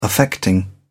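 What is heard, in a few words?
"affecting", English, uk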